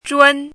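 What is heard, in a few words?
"zhun1", Mandarin pinyin syllable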